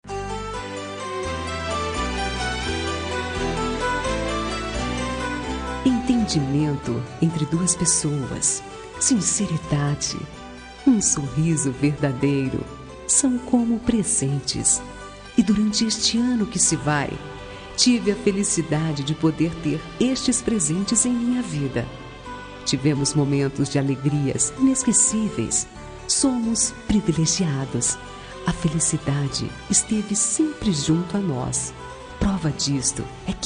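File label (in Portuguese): ano novo romântica female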